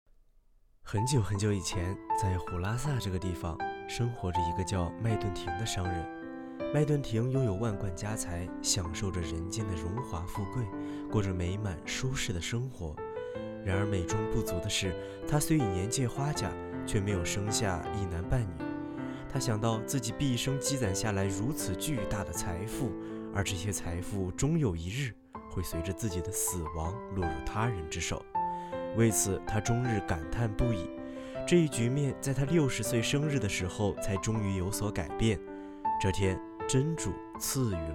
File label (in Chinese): 有声故事 试音